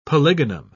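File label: "polygonum", British English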